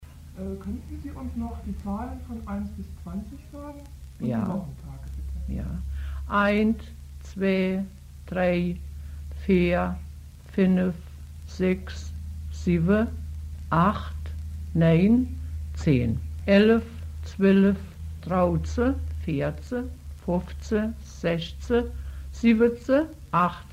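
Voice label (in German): zahlen, O-Ton Hunsrück; Mastershausen; Universität Marburg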